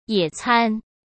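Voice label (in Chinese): "野餐", Mandarin Chinese; hsk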